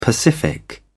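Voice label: "pacific", British English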